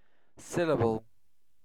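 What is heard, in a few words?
"syllable", British English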